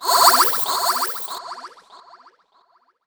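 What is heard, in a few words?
effects talk, Funky Vocals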